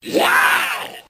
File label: "yeah" monster